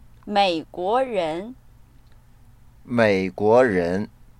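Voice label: "mei guo ren", Mandarin Chinese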